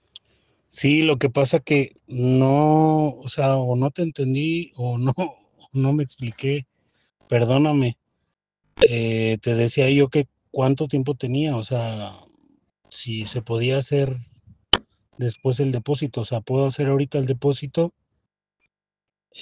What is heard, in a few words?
whatsapp ptt